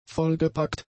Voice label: "vollgepackt", German; adjectives